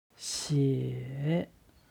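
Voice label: "xie", Chinese; 写; 書く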